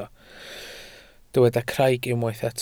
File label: "craig", Welsh